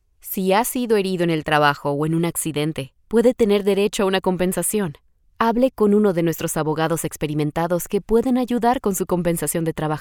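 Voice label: Latin American Spanish, female, Spanish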